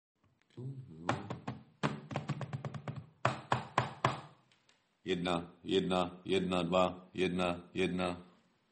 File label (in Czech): hlaseni; rozhlas